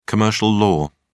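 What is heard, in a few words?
"commercial law", English, British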